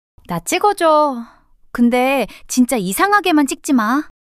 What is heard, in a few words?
shy; monologue